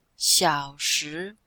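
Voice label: "xiao3shi2", Chinese; Cours de Chinois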